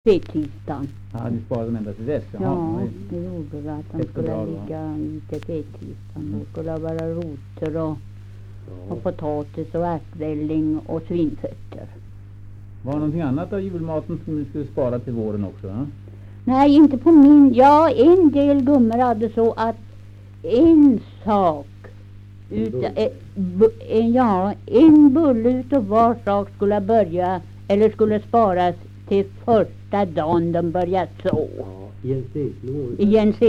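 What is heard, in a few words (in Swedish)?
Riala Uppland, dialekter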